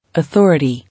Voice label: "Authority", English

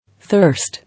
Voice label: "Thirst", English

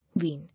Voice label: "Wean", English

